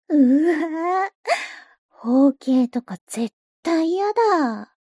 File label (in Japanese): mob 女子 台詞